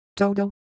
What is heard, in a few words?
Ewe, ideophones; Shape